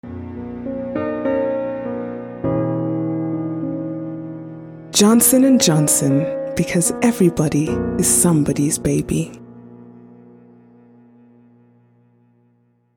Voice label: BABY